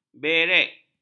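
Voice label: "berek", Malay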